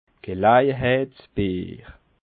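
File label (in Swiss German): "gelejeheitsbier", Bas Rhin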